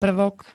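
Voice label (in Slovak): "prvok", Slovak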